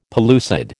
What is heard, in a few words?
"pellucid", American